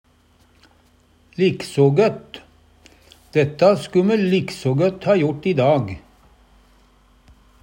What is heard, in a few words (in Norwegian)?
liksa gott, Numedalsmål; like godt; likso gøtt; Adverb